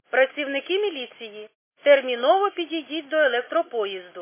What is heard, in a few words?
khs st female, subway station